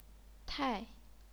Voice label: "tai4", chinese pinyin

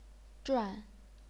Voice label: "zhuan4", chinese pinyin

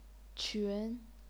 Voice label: "qun2", chinese pinyin

